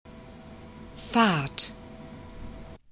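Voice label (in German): "Fahrt" German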